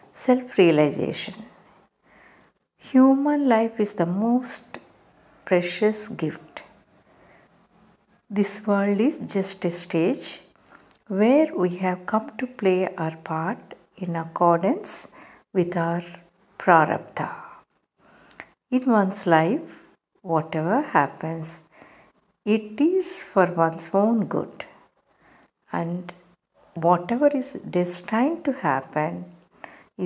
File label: Happy new year english